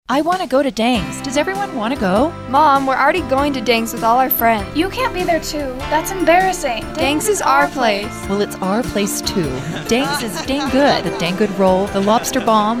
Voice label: Thai Christmas commercial